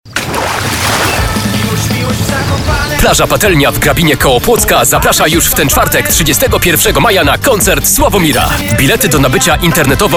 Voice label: spot